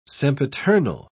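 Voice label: "sempiternal", English, British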